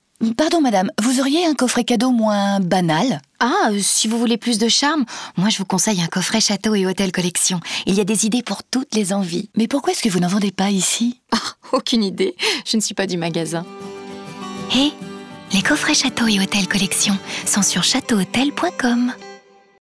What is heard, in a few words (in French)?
Vendeuse cliente